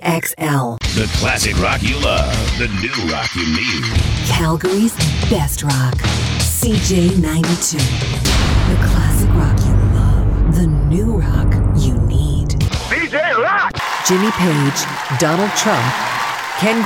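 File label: voice over aor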